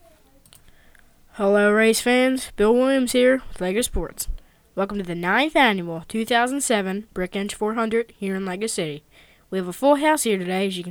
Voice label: anouncer; Brickshelf